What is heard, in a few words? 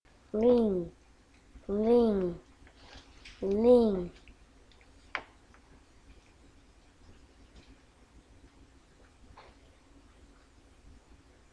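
"ring", spoken word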